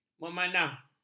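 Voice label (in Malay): "memanah", Malay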